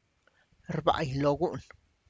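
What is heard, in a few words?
tlacochahuaya, Zapotec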